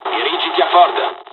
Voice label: fly, dialogs italian; War Thunder